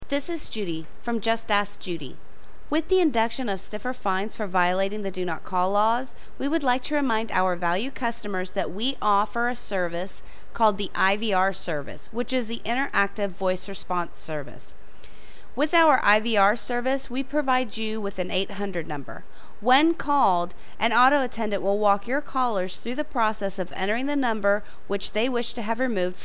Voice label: IVR